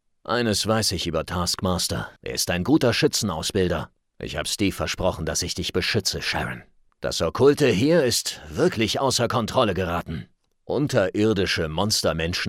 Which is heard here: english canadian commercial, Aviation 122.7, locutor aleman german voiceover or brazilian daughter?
locutor aleman german voiceover